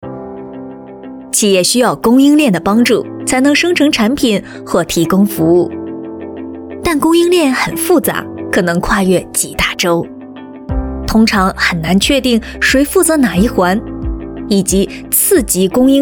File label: Mandarin China Narration